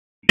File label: PS TAC, p25; wake p25